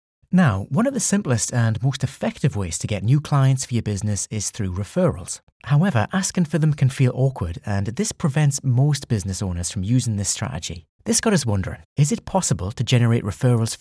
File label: Podcast